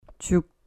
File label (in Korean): "chuk", Korean